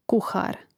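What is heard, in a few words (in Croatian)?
"kuhar" Croatian; Mrežnik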